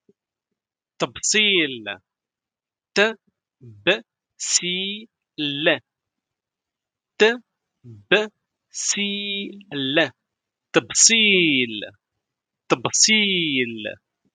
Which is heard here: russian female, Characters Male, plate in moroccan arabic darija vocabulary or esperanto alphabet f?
plate in moroccan arabic darija vocabulary